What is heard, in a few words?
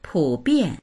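"pu3bian4", Mandarin Chinese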